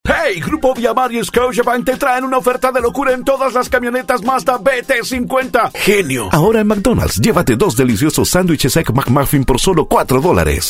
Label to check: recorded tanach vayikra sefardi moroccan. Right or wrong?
Wrong